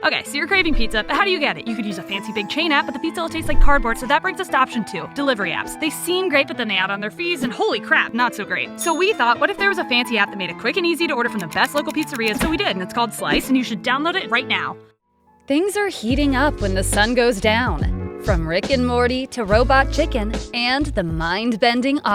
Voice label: Commercial